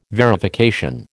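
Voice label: "verification", American English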